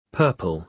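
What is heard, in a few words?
"purple", British English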